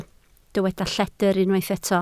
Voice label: "lledr", Welsh